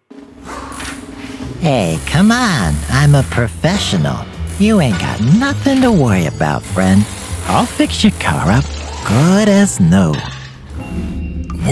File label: Animation, voice actor; Animation Demo